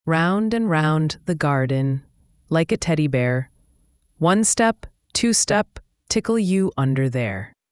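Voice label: Round and Round the Garden, female US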